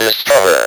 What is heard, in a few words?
"DISCOVER", Speak & Spell